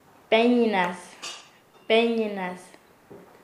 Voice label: "pedido", Nasa Yuwe; paez

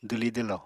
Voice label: "dwli-dwlo", Apatani

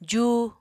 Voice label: "jú", Apatani

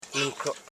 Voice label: "nun kro", Koro house parts

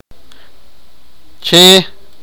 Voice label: sipakapense

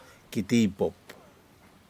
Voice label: birds Grey fantail, Aneityum

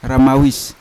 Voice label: "ramawis" blow, Yawa

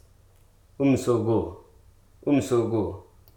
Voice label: "indeed", Hruso

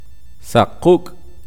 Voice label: "piojo", K'iche'

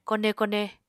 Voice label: "kone kone", Apatani

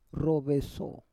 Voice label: "roveso", Roon

